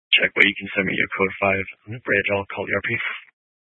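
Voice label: Police Dispatch, scanner audio recording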